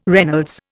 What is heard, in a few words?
"Reynolds", Indian